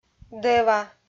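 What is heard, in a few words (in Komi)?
"дӧва", Komi, female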